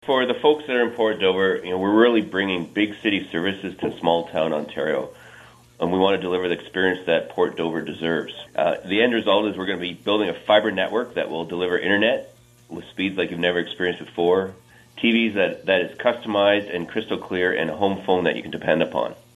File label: Radio Interview; Port Dover Fibre